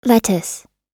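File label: "lettuce", American English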